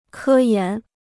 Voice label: "科研", Chinese

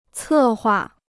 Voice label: "策划", Chinese

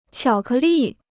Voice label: "巧克力", Chinese

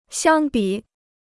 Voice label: "相比", Chinese

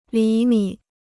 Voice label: "厘米", Chinese

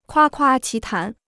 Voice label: "夸夸其谈", Chinese

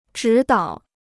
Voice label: "指导", Chinese

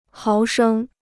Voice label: "毫升", Chinese

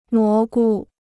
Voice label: "蘑菇", Chinese

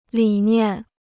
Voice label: "理念", Chinese

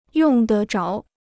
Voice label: "用得着", Chinese

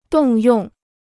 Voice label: "动用", Chinese

